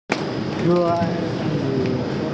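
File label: "zhuo1zi5", Mandarin Chinese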